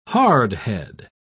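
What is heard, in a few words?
"hardhead", British English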